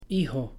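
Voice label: "hijo", Spanish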